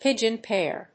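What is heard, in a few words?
"pigeon pair", English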